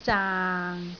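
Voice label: "zaang6", Cantonese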